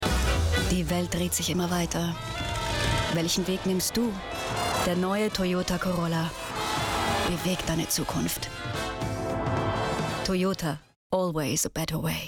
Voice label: Toyota Spot